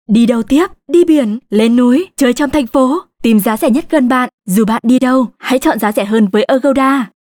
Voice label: Vietnamese North Female